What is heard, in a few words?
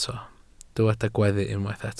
"gweddu", Welsh